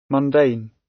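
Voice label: "mundane", British English; The arts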